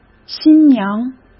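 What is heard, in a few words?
"新娘" Mandarin Chinese; hsk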